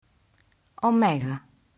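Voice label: "Omega", Greek alphabet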